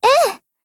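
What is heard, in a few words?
agree, Japanese; 少女前线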